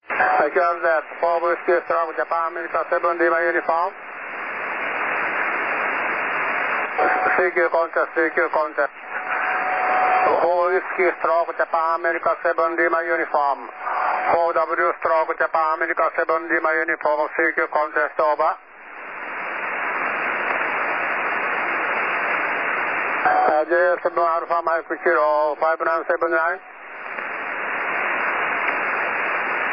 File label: 21.255 MHz SSB